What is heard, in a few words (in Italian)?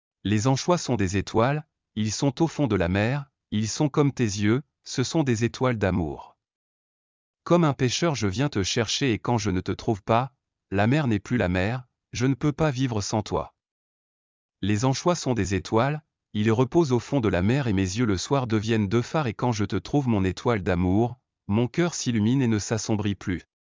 poesia acciuga francese; audioguida